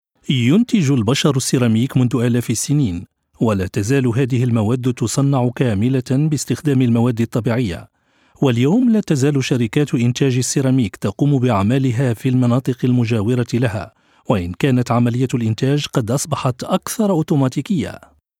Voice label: arabisch voiceover; sprecher

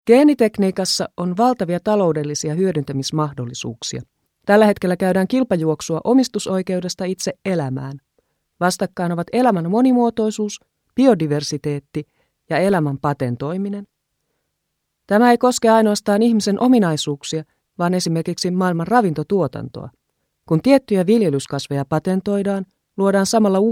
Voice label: finnisch voiceover; sprecher

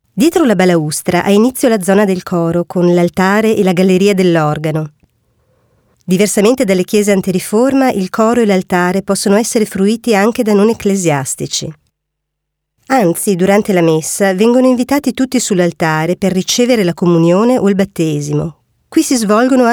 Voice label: italienisch audioguide; sprecher

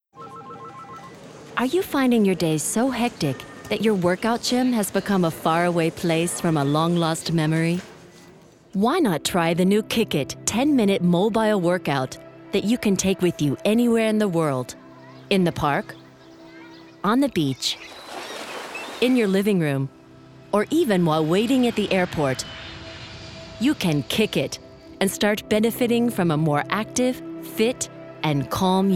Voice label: US Werbung, englisch; sprecher

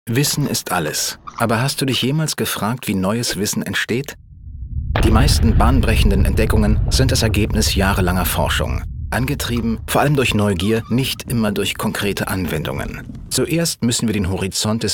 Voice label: image, deutsch maenner; sprecher

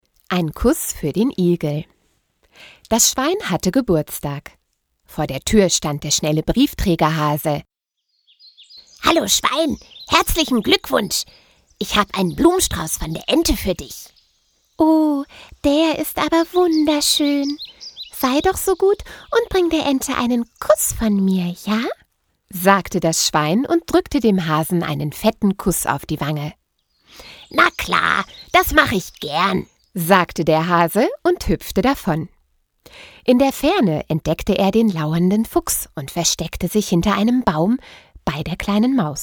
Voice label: Erzaehlung; sprecher

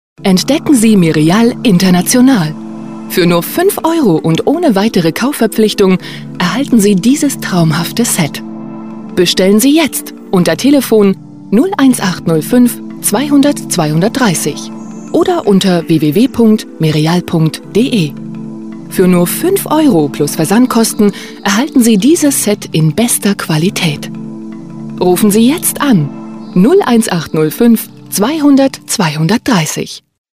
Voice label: deutsch frauen werbespot; sprecher

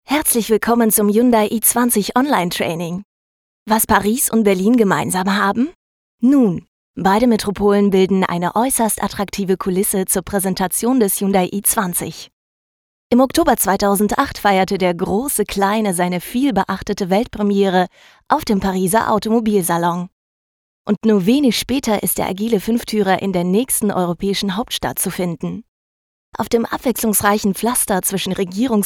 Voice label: deutsch frauen elearning; sprecher

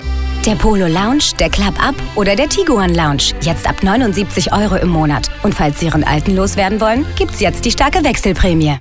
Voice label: werbung, deutsch frauen

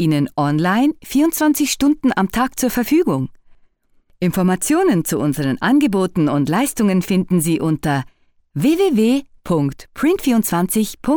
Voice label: ch telefon, sprecher schweizerisch

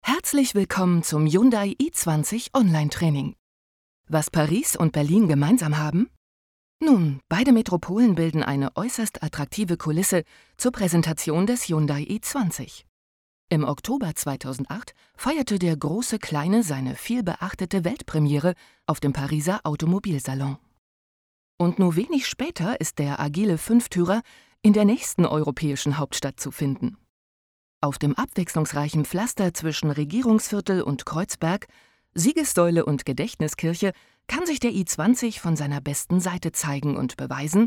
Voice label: de elearning, deutsch frauen; sprecher

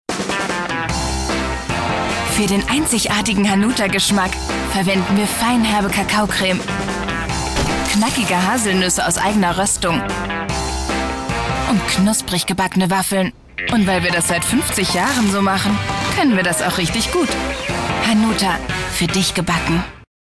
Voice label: werbung, deutsch frauen; sprecher

